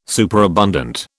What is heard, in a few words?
"superabundant", British